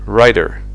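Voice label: "writer", English; fonetica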